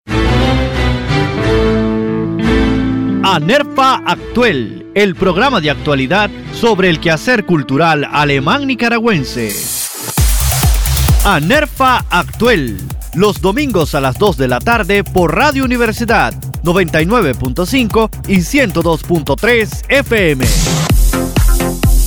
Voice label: promo